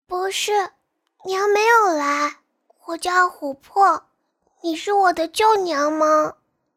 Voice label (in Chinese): 自然萝莉音